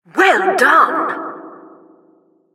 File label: announcer killstreak